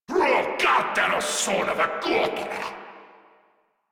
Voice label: Player Spotted, Grineer Male; Warframe